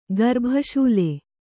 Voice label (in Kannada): "ಗರ್ಭಶೂಲೆ", Kannada